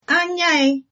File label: long time no see, igbo